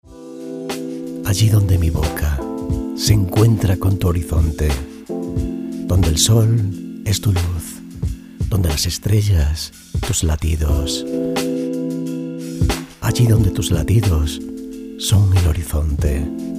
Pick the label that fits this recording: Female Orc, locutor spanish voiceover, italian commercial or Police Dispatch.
locutor spanish voiceover